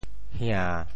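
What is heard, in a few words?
"hia~7", Teochew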